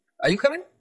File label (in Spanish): Voice Acting es M